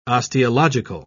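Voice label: "osteological", British English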